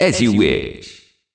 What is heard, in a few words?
genie taunt